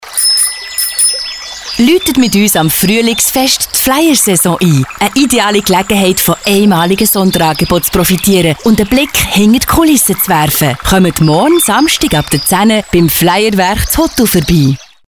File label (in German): Radio Spot, sprecherin; Flyer Fahrräder; Covermedia